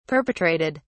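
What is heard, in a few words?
"perpetrated", British English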